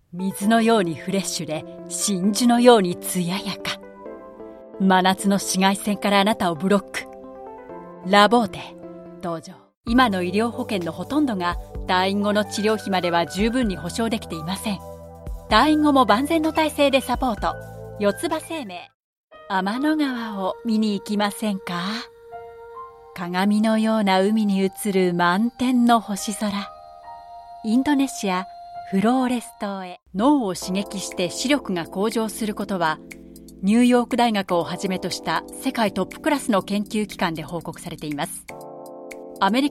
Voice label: Japanese

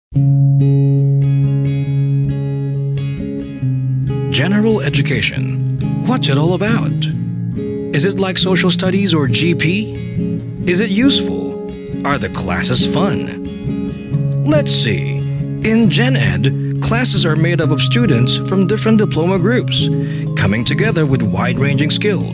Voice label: Asian English Learning Outcomes; GenEd

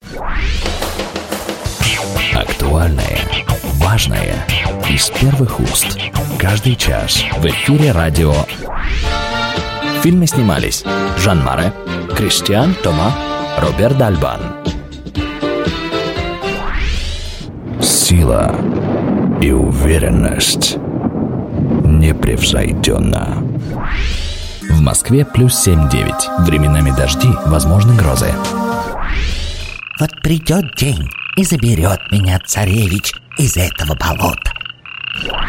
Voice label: Russian Male Commercial

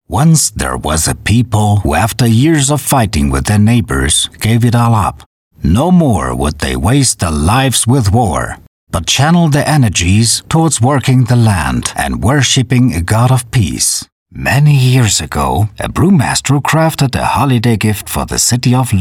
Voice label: German English trailers

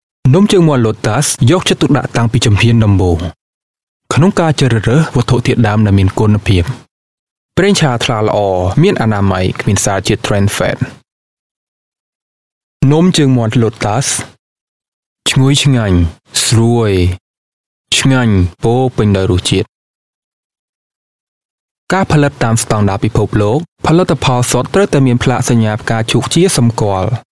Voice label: Male Khmer TVC

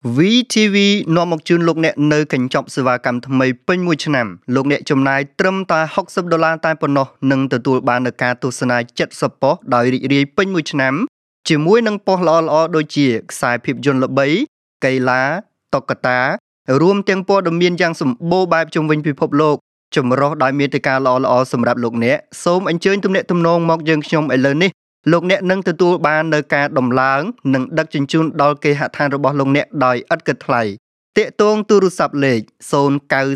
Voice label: Khmer Male Sample; WeTV

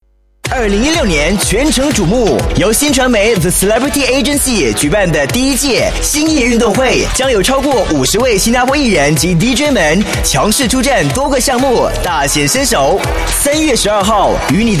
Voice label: Mandarin Male Sports; China; TCA

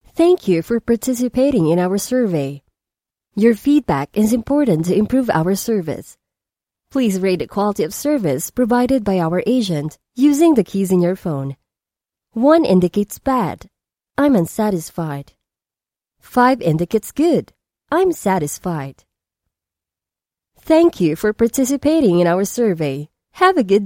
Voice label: Filipino English

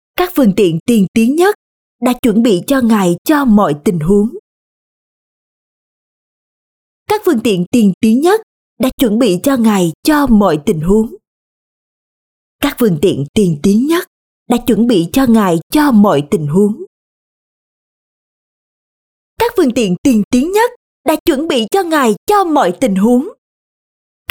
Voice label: Vietnamese South Female; Kia

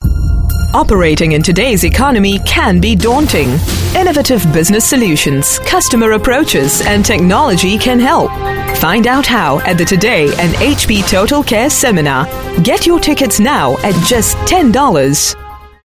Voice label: Corporate Sell, English Singaporean Female

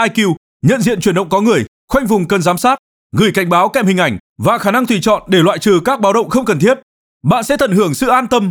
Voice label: Vietnamese North camera male; Fpt

